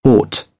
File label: "aught", British; ɑː - ɔː; English